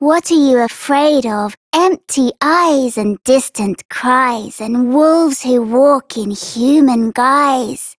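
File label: Child Rhymes Fear Child Female; Duviri; Childrens Rhymes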